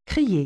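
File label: "crier", French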